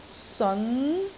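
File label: "seon2", Cantonese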